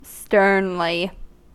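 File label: "sternly", US English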